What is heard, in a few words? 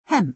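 "hemp", British English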